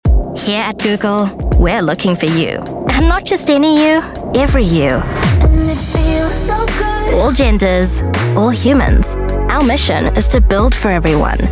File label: Commercial, en-US